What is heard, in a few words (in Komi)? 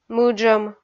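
"мыджӧм", Komi, female; kpv audio dict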